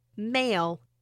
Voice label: male